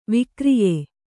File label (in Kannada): "ವಿಕ್ರಯಿ", Kannada